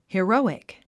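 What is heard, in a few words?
"heroic", English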